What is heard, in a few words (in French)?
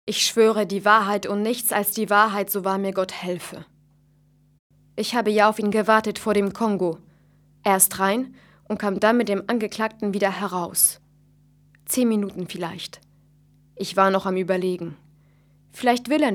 femme allemande